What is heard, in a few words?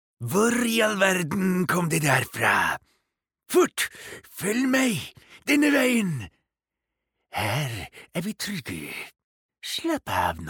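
Norwegian dub character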